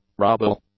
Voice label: "rubble", English; nouns